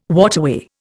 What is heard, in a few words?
"waterway", Indian